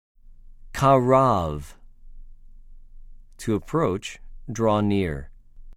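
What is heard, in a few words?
"קָרַב", Hebrew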